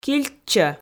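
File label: "кильчӧ", Komi, female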